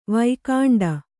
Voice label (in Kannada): "ವೈಕುಂಠಿ", Kannada